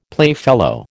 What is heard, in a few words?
"playfellow", American